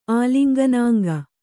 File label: "ಆಲಿಂಗನಾಂಗ", Kannada